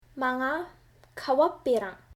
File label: "Kebab", Uyghur